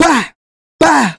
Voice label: "bow bow", Voice